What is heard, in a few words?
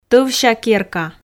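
"товся керка", Komi, female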